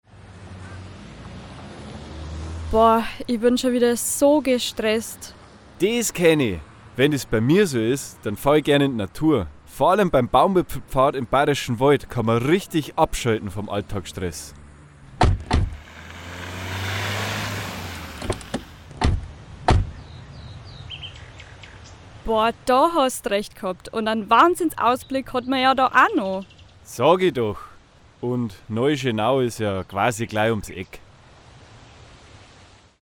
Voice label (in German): Werbung; Erlebnis Akademie